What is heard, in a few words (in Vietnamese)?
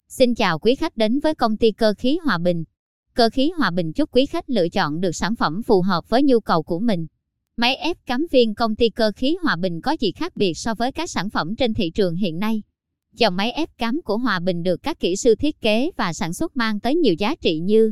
nu mien nam giong doc